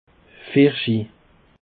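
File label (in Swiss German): "vorschi", Alsatian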